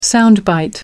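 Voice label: "sound bite", British English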